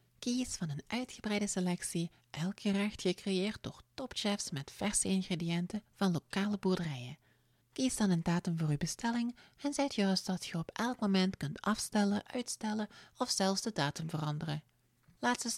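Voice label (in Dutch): Dutch, General; voice demos; GoLocalise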